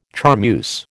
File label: "charmeuse", American